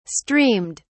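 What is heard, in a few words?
"streamed", English, British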